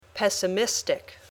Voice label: "pessimistic", English